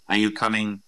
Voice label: Voice Acting es M